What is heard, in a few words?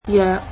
about, cantonese